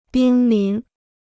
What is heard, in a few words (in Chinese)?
"濒临", Chinese